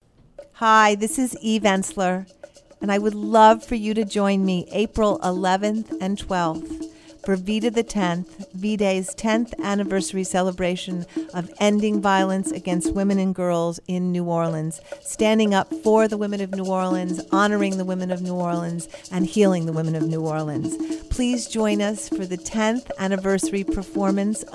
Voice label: psa